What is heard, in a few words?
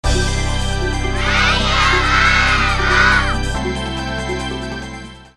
startup voices jp